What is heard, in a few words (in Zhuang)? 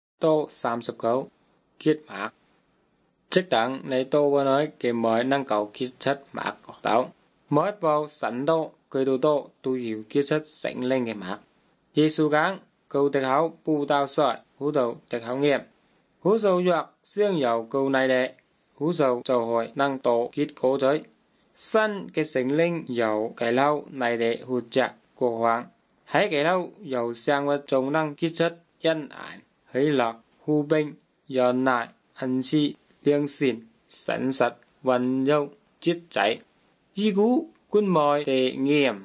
good news zhuang nanning fushu